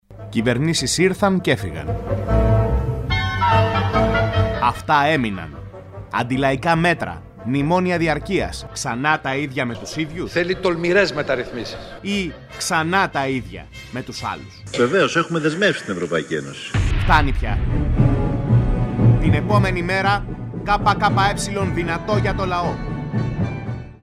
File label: spot